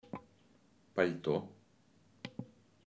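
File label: "palto", Russian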